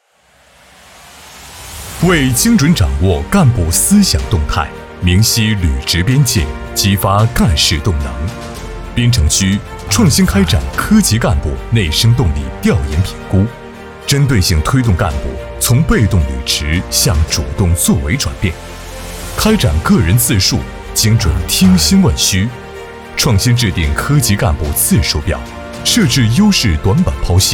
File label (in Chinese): 男 宣传片; 向深向实问诊